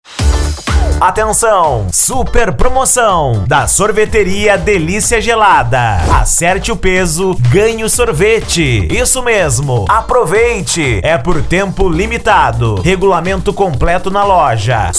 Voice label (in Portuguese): Locutor; Sorveteria Delicia Gelada Paracambi RJ